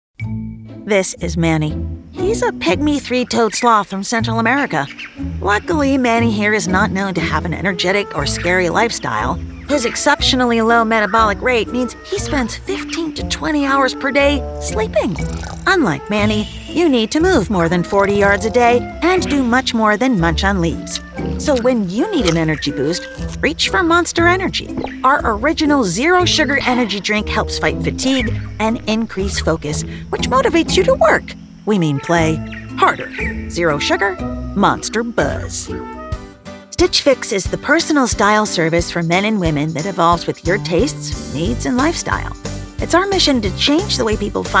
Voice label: EXPLAINER

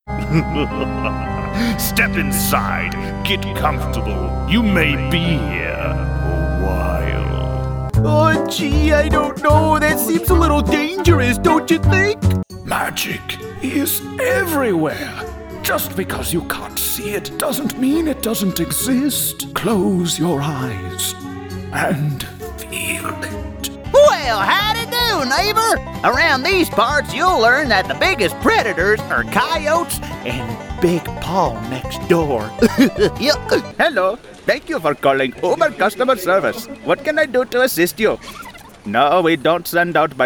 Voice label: Characters for Animation

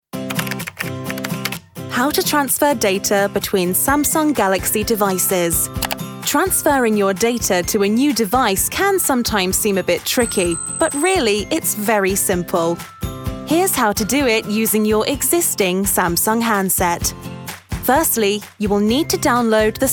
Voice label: Corporate

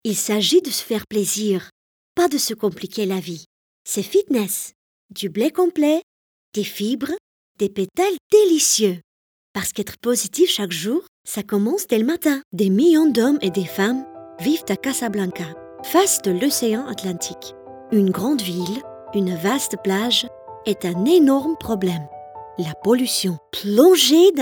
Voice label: French

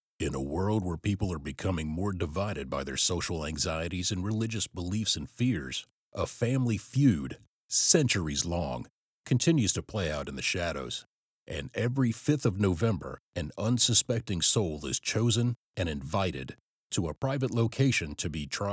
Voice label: Movie Trailer

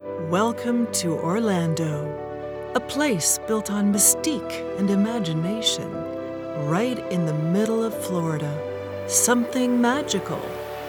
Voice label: Commercial American

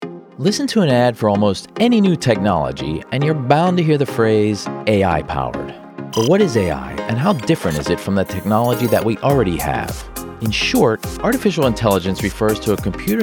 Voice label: Narration

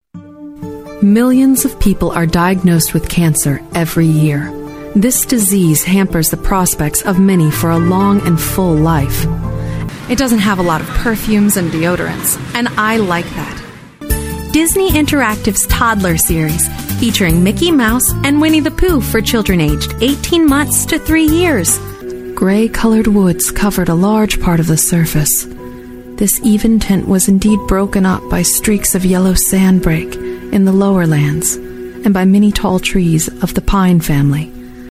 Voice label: Narration

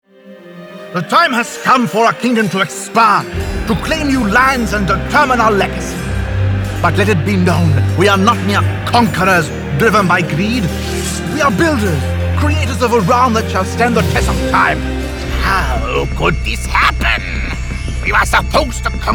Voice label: English Video Game

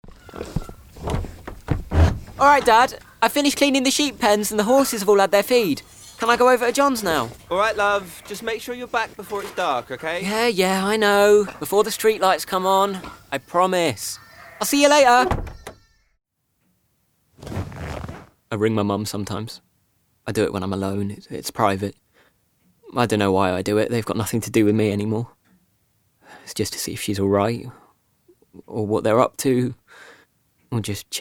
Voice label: Radio Drama

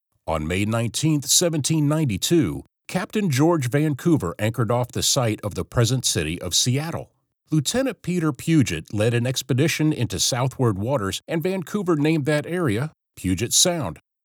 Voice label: Promo; Red Banner

